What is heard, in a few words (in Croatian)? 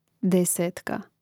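"desetka", Hrvatski; mreznik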